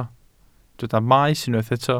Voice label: "maes", Welsh

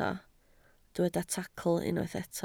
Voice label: "tacl", Welsh

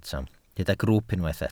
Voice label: "grwwp", Welsh

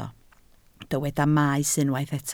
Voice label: "maes", Welsh

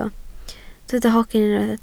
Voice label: "hogyn", Welsh

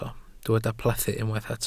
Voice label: "plethu", Welsh

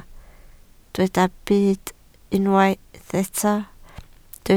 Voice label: "byd", Welsh

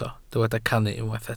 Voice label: "cannu", Welsh

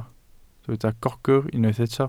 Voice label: "gogr", Welsh

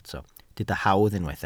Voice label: "hawdd", Welsh

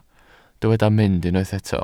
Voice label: "mynd", Welsh

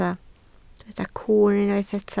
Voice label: "cwwn", Welsh

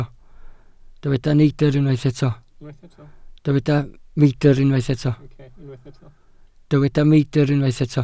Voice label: "meidr", Welsh

